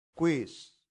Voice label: "quis", latin; santillana